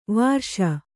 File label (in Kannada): "ವರ್ಷ", Kannada